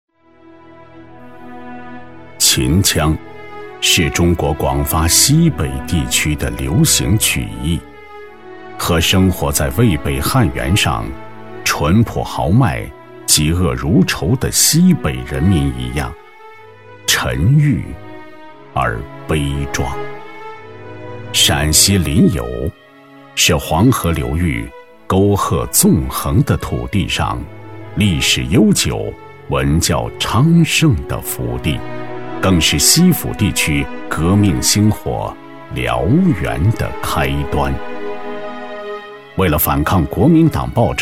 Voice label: 男 旁白; 西府第一枪

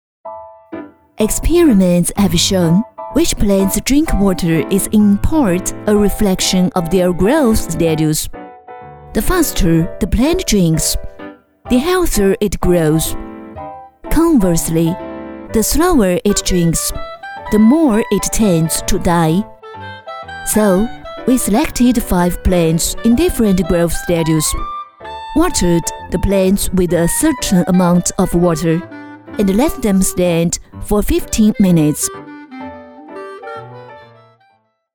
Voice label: 女 英文 宣传片; PLANTS

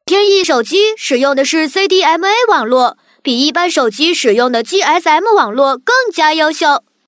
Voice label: 女 男童; 天翼手机

